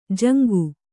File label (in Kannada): "ಜಾಂಗು", kannada